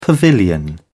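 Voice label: "pavilion", British English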